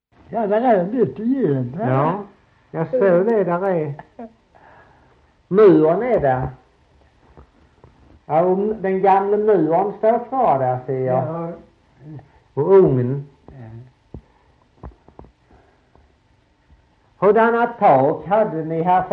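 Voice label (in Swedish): Slättåkra Halland, dialekter svenska sagor; Folkminnen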